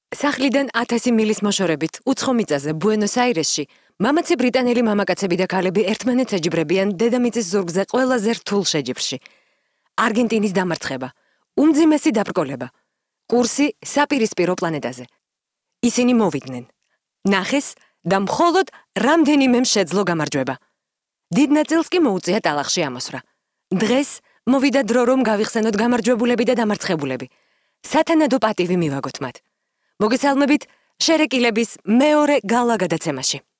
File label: Georgian